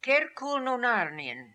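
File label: "Kerkoolnonarnian", pronunciations; Fourth Way; Beelzebub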